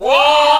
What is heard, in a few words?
wah group of folks; ooh ahh